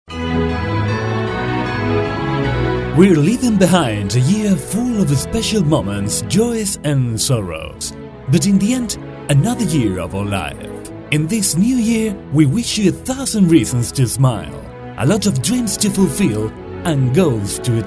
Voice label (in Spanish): Feliz Anio Nuevo Ingles; mensajes navidad 2017